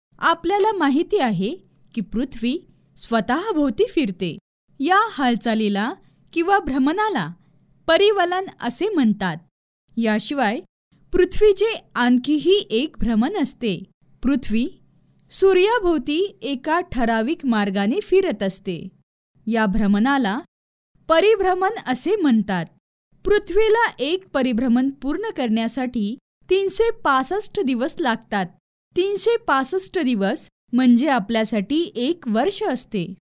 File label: MARATHI E-LEARNING